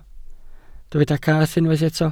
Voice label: "cath", Welsh